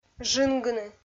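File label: "жынгыны", Komi, female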